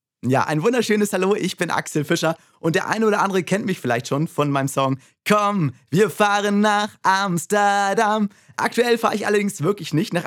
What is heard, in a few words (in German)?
Voxpop Heissbaer Vorstellung